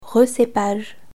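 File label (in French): "recépage", French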